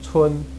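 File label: "cun", Chinese; Yingzao fashi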